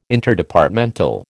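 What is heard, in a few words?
"Interdepartmental", American English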